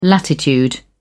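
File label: "latitude", British English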